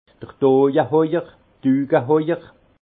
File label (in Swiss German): "doyehauer", alsace; vin; merrandier